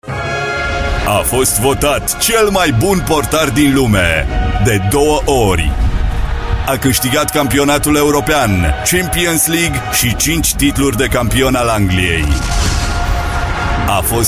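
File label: Romanian, male, commercial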